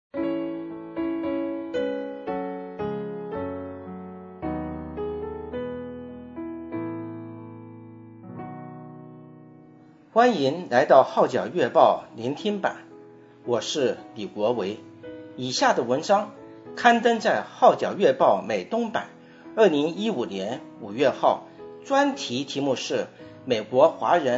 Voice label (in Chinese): Mandarin